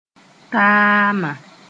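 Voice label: "taama", Mooré; shea fruits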